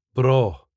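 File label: "braw", Scottish English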